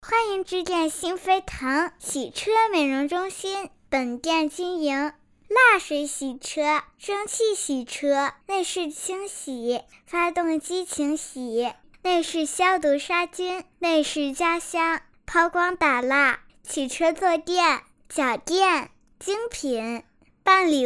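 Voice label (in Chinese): 女童 真童配音 8-12岁; 中文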